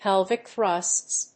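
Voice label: "pelvic thrusts", English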